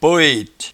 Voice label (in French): "poete", patois jura